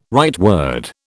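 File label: "rightward", British English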